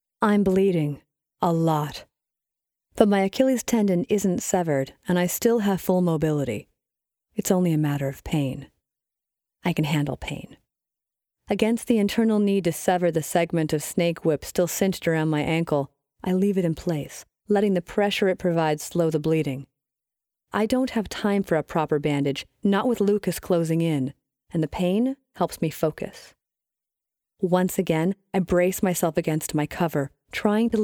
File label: SCI FI 1st POV Action M F dialogue